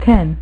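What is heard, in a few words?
"ten", female; MacCribbage; InterStellar MacCribbage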